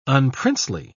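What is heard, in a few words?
"unprincely", British English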